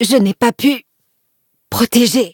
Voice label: defeat, fr; cookierunwiki